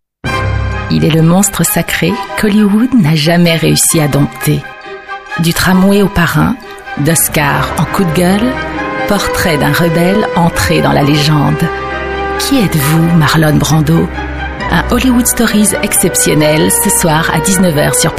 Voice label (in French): bande annonce télé; Paris Première